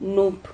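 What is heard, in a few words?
"nup", Spanish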